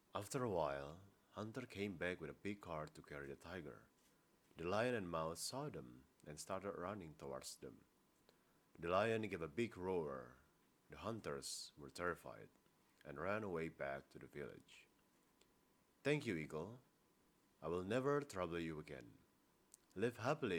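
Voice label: character English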